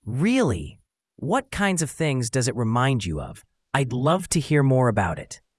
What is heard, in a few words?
emotion